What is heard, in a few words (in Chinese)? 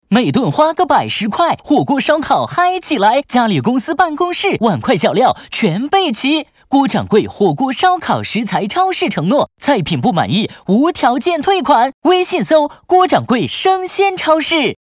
年轻活泼广告; 锅掌柜生鲜超市